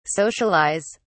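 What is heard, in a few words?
"socialise", British English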